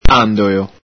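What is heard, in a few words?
"andolo", Veneto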